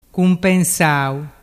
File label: "cumpensau", dialettu